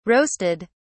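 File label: "roasted", British English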